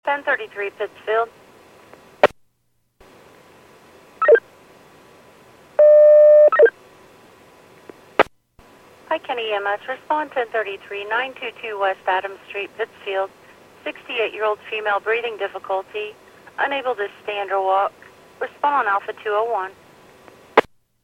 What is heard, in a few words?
PAGING